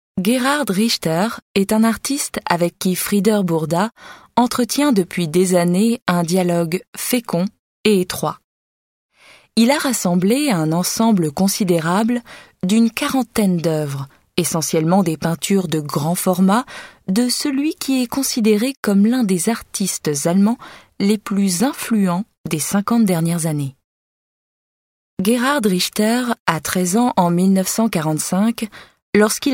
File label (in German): FR Sanft; Fremdtake